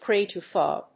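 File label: "Craie tufau", English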